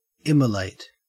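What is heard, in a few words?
"immolate", Australian English; pronunciations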